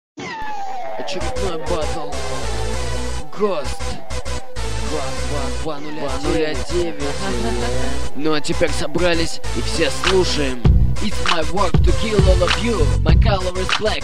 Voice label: Rap Battle; Izh Rap Battle 1; round 1